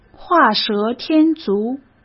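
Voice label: "画蛇添足", Mandarin Chinese; chinese flashcards; hsk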